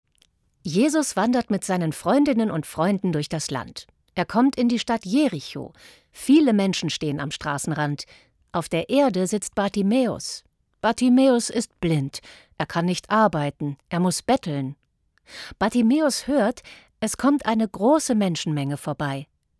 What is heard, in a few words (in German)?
Sprecherensemble; TonCollage; Koln